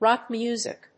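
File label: "rock music", English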